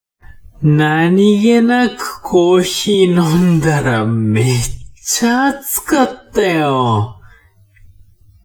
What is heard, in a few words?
man